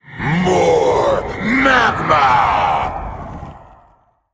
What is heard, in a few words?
male elemental attack